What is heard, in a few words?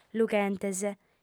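"lughentes", female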